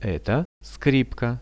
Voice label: "eto skripka", Russian; Это скрипка; music; RUSSIAN VISUAL DICTIONARY

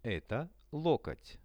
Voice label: "eto lokot", Russian; Это локоть; RUSSIAN VISUAL DICTIONARY; portret

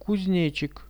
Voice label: kuznechik, Insects; кузнечик

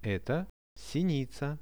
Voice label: eto sinica, Russian; Это синица; birds; Какая это птица?

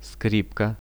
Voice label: "skripka", Russian; скрипка; music; RUSSIAN VISUAL DICTIONARY

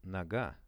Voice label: "noga", Russian

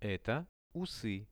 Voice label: "eto usy", Russian; Это усы; RUSSIAN VISUAL DICTIONARY; portret

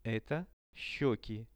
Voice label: "eto sheki", Russian; visual dictionary

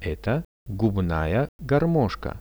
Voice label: "eto gubnaja garmoshka", Russian; Это губная гармошка; music; RUSSIAN VISUAL DICTIONARY

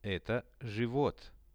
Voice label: "eto zhivot", Russian

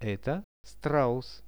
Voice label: eto straus, Russian; Это страус; birds; RUSSIAN VISUAL DICTIONARY

